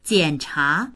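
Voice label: "jian3cha2", Chinese; jiǎnchá; 检查; 検査する、点検する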